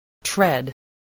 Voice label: "tread", voice